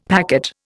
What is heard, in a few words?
"packet", Indian; Dictionary